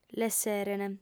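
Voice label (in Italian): "l'esserent", lingua sarda, fem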